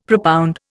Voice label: "propound", Indian; Dictionary

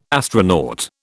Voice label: "astronaut", British

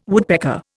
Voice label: "woodpecker", Indian

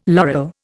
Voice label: "laurel", Indian

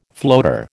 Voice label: "floater", American

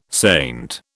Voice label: "saint", British

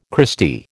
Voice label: "Christy", American

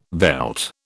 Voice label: "veld", British

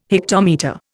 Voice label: "hectometre", Indian

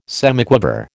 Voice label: "semiquaver", American

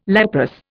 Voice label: "leprous", Indian

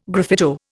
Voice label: "graffito", Indian

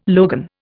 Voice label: "logan", Indian

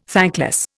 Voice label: "thankless", Indian

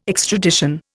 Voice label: "extradition", Indian

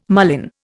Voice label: "mullein", Indian

